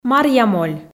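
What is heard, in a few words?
"маръямоль", Komi, female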